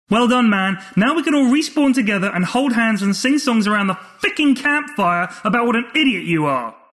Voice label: campfire, announcer killing spree; dlc killing spree